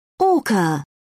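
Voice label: "orca", English, British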